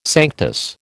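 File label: "sanctus", American English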